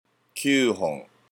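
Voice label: "kyuuhon", Japanese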